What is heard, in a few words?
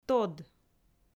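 "тод", Komi, female; audio dict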